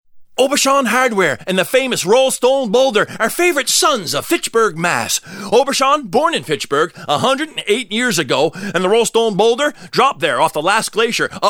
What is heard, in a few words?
Radio; Rollstone Boulder; Radio60